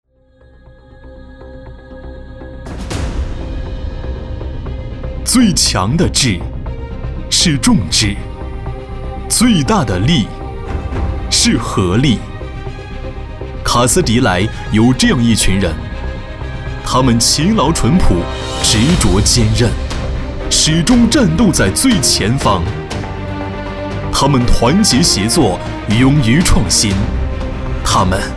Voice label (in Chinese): 宣传片; 卡思迪莱